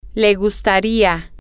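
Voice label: he would like, spanish, female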